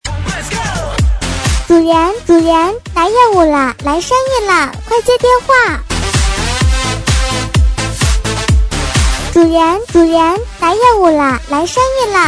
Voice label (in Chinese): 彩铃，个性; 主人来业务了